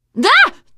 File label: reaction startled, teen girl; voice; source engine; left 4 dead 2; survivor